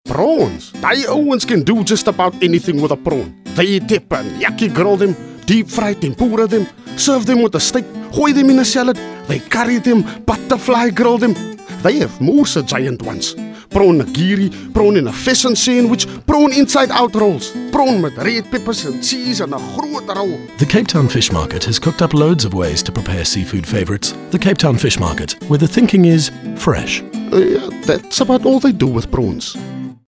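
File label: english south african male commercial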